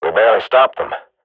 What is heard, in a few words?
radio bot end close; Counter Strike Model Pack; CS:GO